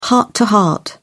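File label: "heart-to-heart", British English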